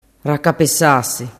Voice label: "racapesas", dialettu